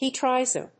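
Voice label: "heat riser", English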